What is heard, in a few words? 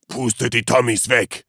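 Voices Units Axis Grenadier attack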